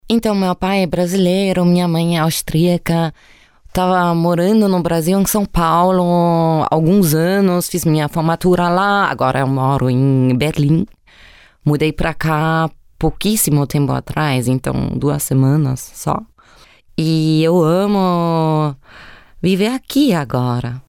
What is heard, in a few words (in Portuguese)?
portugiesische vorstellung